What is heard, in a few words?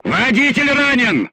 voice message driver wounded, crew tanks russian